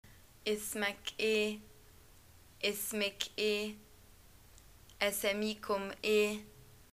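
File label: what is your name, Egyptian Arabic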